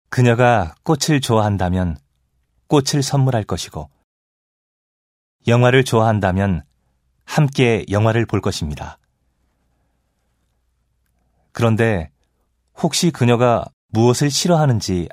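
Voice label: Korean male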